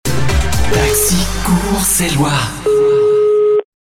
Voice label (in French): chuchote